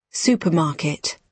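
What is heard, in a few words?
"supermarket", British English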